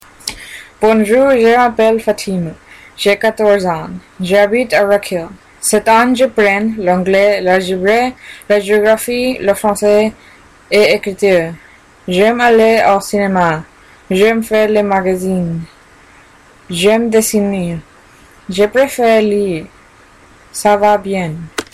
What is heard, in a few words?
french